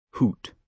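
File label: "hoot", British English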